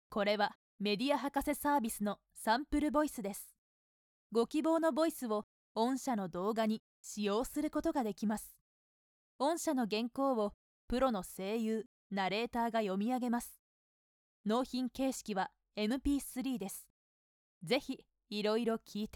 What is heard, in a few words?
lady, narration sample voice women